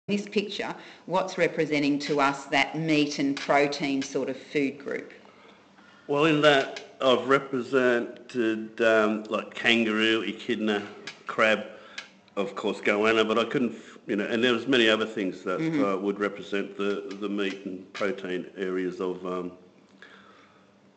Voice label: narration